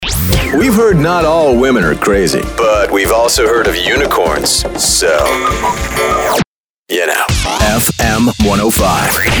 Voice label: radio rock, radio imaging